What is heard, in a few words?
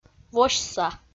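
"восьса", Komi, female; audio dict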